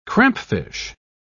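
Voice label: "crampfish", British English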